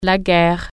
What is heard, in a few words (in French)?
"war", French